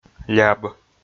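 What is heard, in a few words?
"ляб" Komi, male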